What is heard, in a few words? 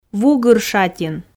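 "вугыр шатин", Komi, female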